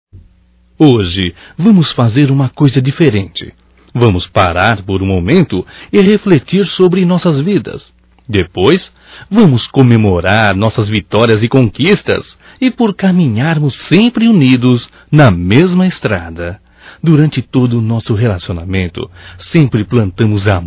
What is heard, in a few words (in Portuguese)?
Romântico Masculino, Telemensagens